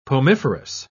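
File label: "pomiferous", British English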